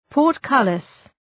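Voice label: "portcullis", English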